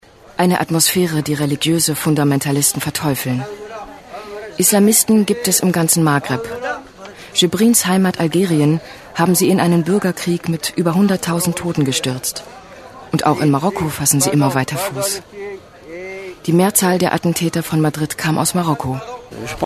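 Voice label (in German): commentary, Neutral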